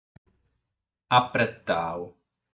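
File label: "Apretau", Sardinian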